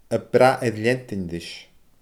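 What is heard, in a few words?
"a brath air leantainn ris", Scottish Gaelic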